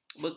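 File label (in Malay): "bekalan", Malay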